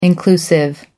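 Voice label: "inclusive", British English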